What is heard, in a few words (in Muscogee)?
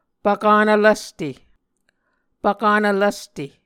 "pvkanv lvste", Muscogee